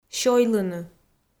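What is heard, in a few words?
"сёйлыны", Komi, female; kpv audio dict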